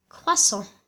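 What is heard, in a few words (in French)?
"croissant", French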